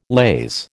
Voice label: "laze", American

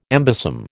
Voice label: "embosom", American

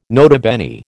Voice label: "nota bene", American

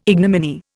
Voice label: "ignominy", Indian

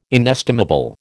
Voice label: "inestimable", American

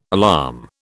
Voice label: "alarm", British

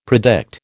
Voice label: "predict", American

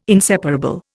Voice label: "inseparable", Indian

